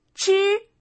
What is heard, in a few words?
"chi", woman, mahjong; ningxia